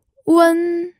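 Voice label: "wen1", Mandarin Chinese, pinyin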